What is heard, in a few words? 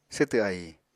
"swtw ahi", Apatani; Material Culture